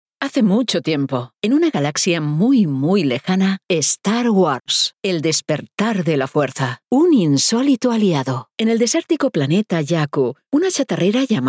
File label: AUDIOBOOKS SPANISH NARRATOR